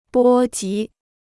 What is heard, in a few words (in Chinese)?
"波及", Chinese